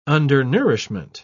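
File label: "undernourishment", English, British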